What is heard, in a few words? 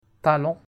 "talons", French